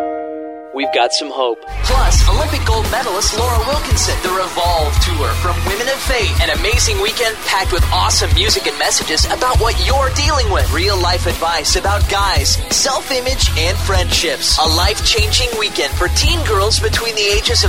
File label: young